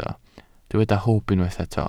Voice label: "hwb", Welsh